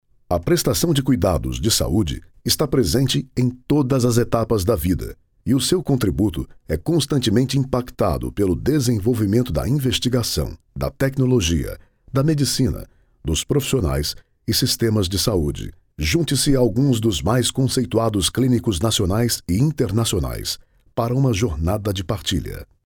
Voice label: portuguese brazilian others